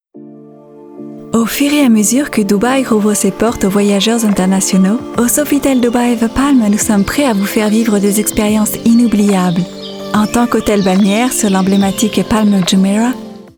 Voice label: french commercial; France; professional French voice over actress

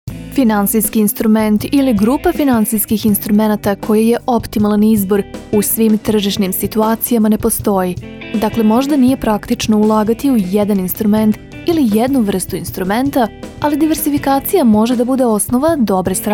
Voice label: serbian documentaries; Serbian native voice-over actor from Belgrade

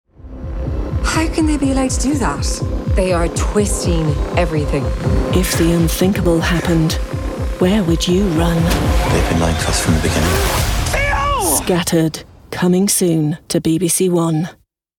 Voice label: english british promos

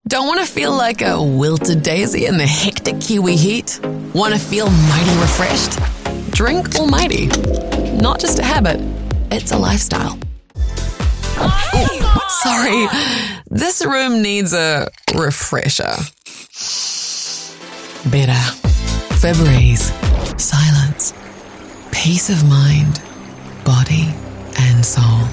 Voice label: english new zealand commercial; distinctive

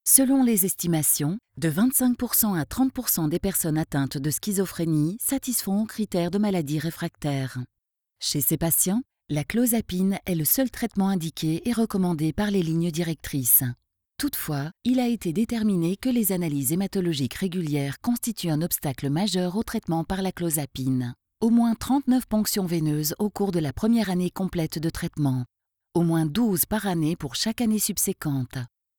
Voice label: french documentaries

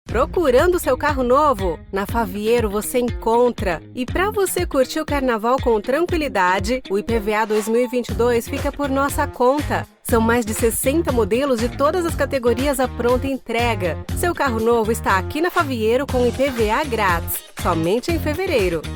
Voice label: portuguese brazilian automotive

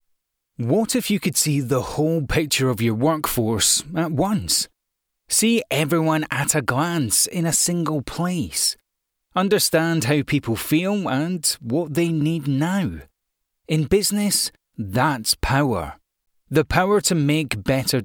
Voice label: english scottish corporate videos

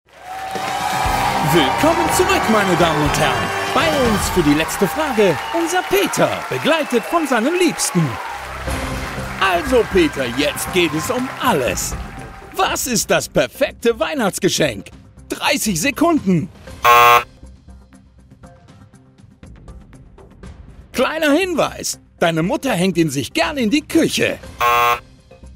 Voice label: german tv shows

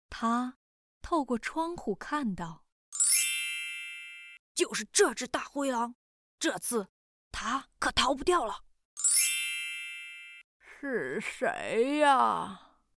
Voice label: chinese mandarin cartoon animation

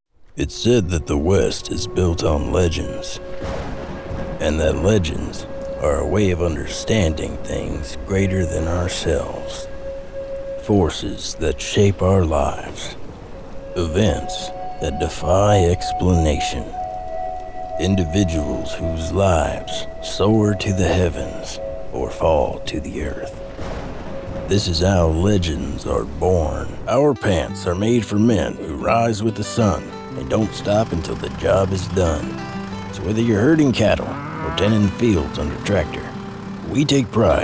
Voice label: english american narration, american voice actors

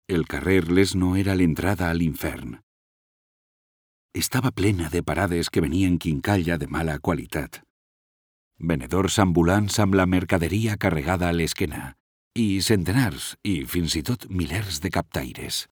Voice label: catalan documentaries

